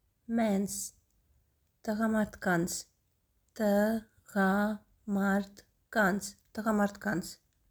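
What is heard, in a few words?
English "Mens", Armenian "Tghamardkants"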